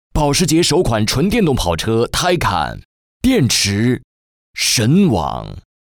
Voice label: 男 广告; 保时捷

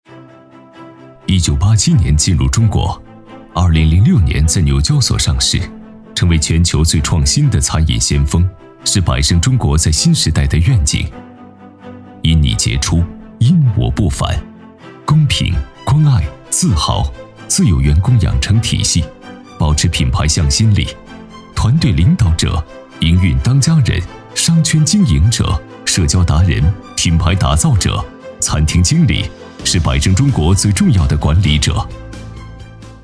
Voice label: 男-企业宣传-平实讲述; 百胜中国人才发展纪实

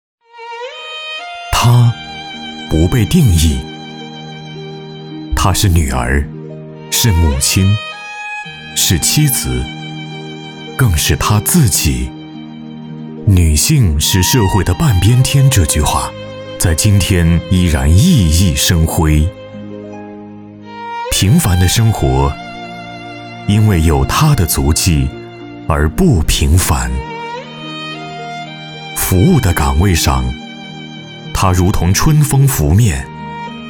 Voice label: 男 专题宣传 温暖讲述有感情 人物讲述

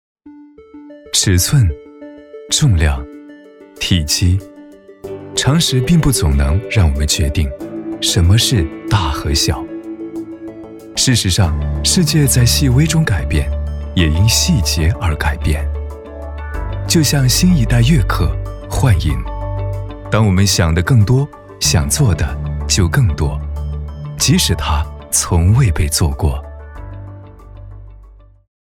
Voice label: 男 专题 温暖 时尚; 悦刻幻影

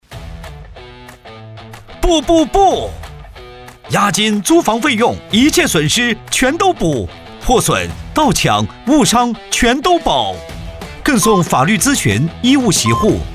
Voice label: 男, 品质广告; 租房保险

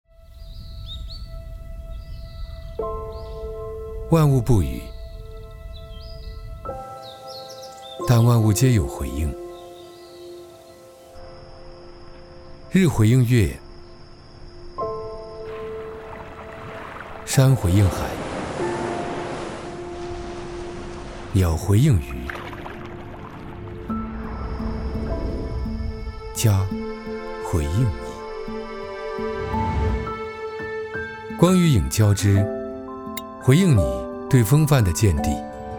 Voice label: 男 高端 自然; 西门子; 辰荟

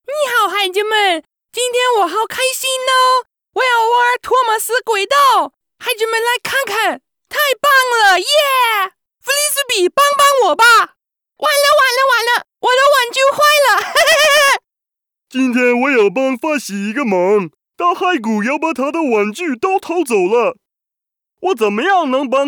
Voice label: 外籍英男 动画配音 角色模仿

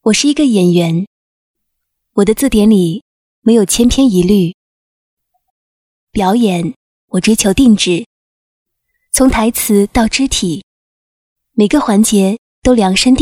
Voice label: 女, 旁白 广告; 沃尔定制化鼻整形旁白广告

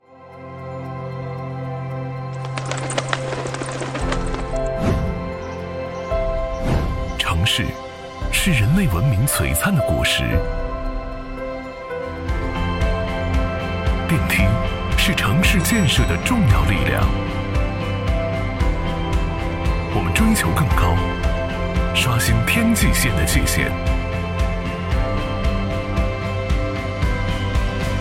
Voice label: 男, 年轻, 形象片, 电梯

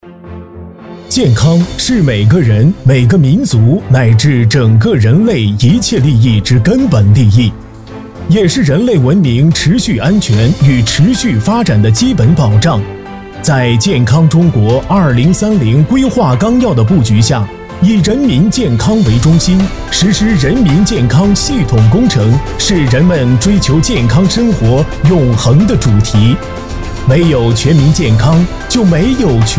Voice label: 男 专题 政企类 健康中国

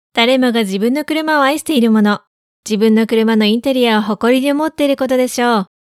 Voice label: 日语女, 干音, 叙述

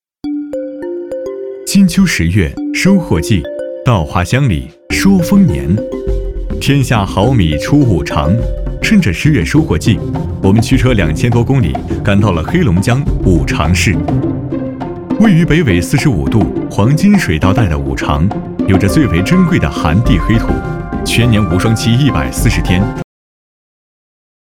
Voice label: 男 舌尖 五常大米

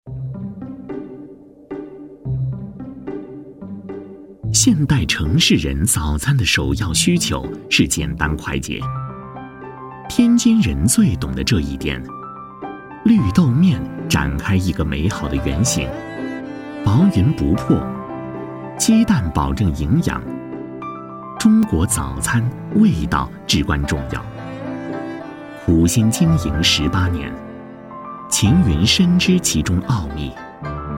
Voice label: 男-舌尖类-早餐

